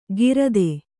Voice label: "ಗಿರದೆ", Kannada

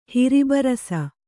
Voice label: "ಹಿರಿಬರಸ", kannada

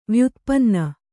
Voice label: "ವ್ಯುತ್ಪನ್ನ", Kannada

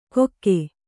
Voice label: "ಕೊಕ್ಕೆ", Kannada

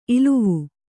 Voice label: "ಇಲ್ಲವೆ", Kannada

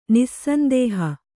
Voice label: "ನಿಸ್ಸಂದೇಹ", kannada

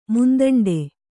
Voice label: "ಮುಂದಂಡೆ", Kannada

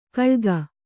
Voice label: "ಕಳ್ಗ", Kannada

